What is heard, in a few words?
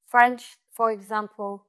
Voice Acting es F